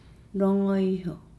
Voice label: "feel", Nukuoro

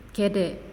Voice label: "Belly", Naasioi; unitech

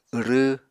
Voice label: "wrw", Apatani

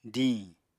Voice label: "díiñ", Apatani

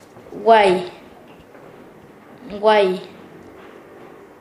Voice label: "gritar", Nasa Yuwe; paez

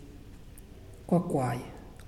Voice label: Foot (Leg), Muyuw; Lae Unitech

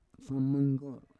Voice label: "fomonggor", Roon

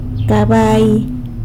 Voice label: "cabai" horse; san lucas quiavini

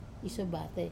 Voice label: "sobata" stand up, Wamesa

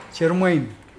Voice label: "boy", Mokilese

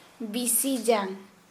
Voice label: Santali backbone

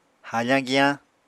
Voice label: "hafañ giyañ", apatani

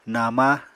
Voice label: "nama", Apatani

